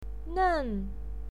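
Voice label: "nen" fourth tone, pinyin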